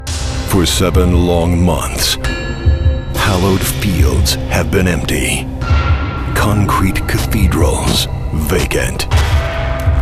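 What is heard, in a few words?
narration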